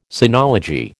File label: "sinology", American